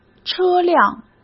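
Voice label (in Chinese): "车辆", Mandarin Chinese; chinese flashcards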